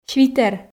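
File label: "свитер", Komi, female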